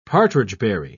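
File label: "partridgeberry", British English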